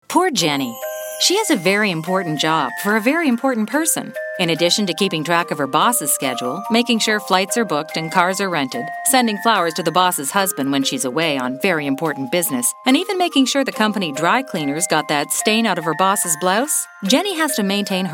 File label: Conversational Amusing